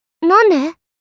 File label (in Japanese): "Nande", Kawaii Voice, Reactions